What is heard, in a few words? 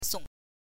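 "song", Mandarin Chinese, neutral tone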